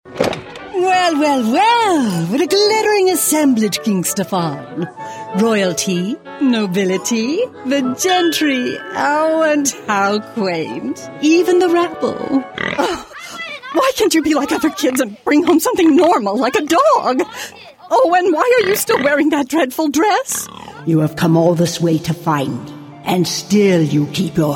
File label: Animation